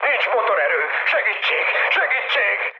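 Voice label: Hungarian damaged engine mood high, speech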